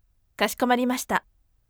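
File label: voice kashikomarimashita firm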